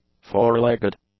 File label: "four-legged", English; adjectives